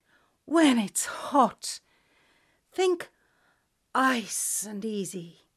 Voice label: Advert